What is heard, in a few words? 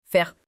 "faire", French